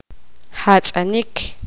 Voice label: poqomam; amarrar